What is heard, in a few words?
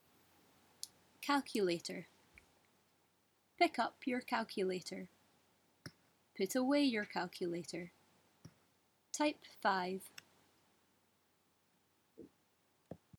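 "calculator", English; lexique pour les mathematiques en langue anglaise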